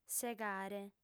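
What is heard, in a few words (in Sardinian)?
"segare", female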